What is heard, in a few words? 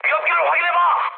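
Korean damaged oil mood high, speech; War Thunder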